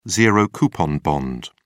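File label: "zero-coupon bond", British English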